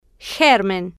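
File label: "germ", Spanish, female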